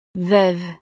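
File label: "veuve", French; familia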